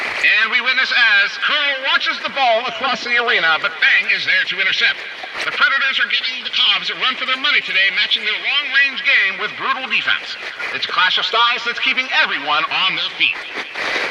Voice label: Transmission Echoes